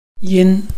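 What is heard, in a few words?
"yin1", Mandarin Chinese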